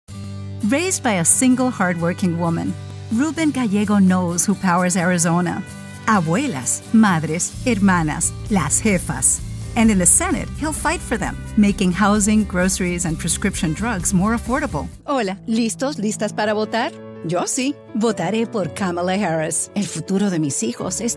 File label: Political, Hispanic & Latino Bilingual Voiceovers